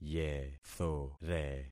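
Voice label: "yethore", concatenated syllables; Affixing experiment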